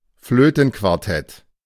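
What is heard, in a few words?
"Flötenquartett", German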